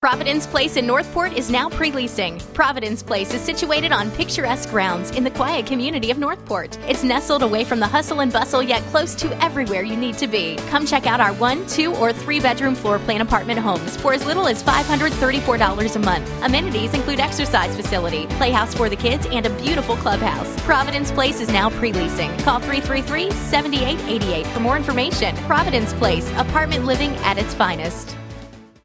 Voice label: radio ad